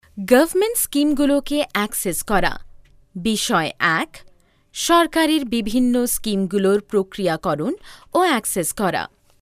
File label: traning bengali, voice artistes